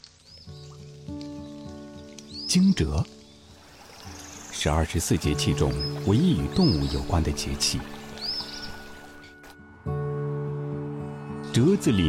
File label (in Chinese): 男 广告 汽车; 极狐汽车